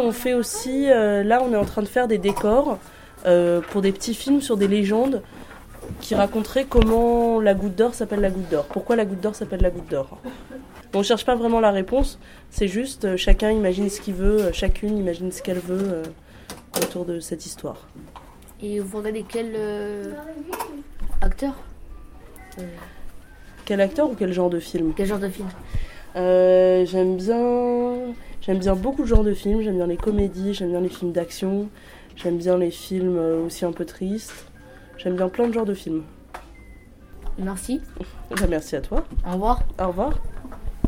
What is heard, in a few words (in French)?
documentaire